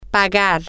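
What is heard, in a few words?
to pay, Spanish, female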